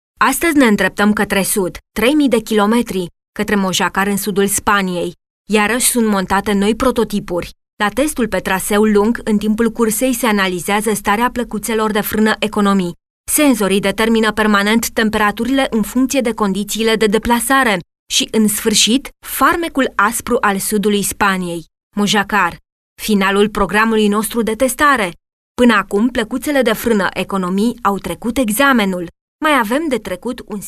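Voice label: Romanian, female, narration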